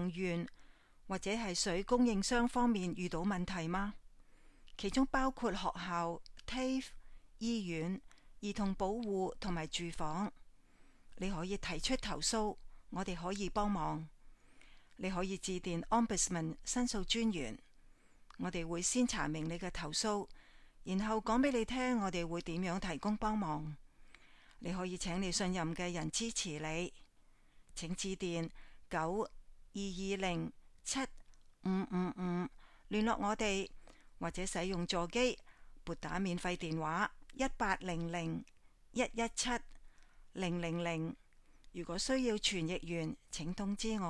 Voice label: Cantonese